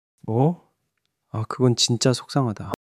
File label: sadness